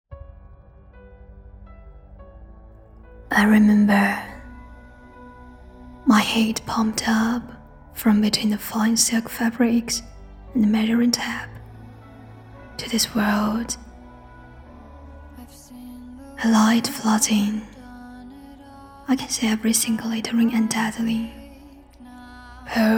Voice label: 走心旁白

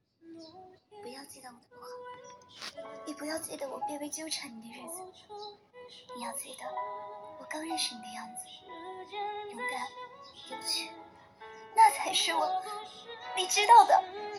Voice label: 情感倾诉; 不要记得我的不好

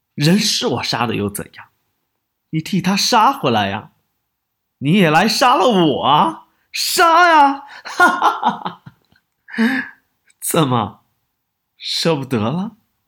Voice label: 角色干声

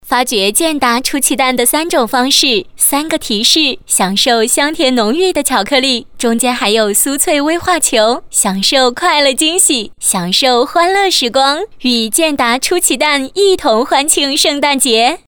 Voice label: 广告 甜美; 健达奇趣蛋

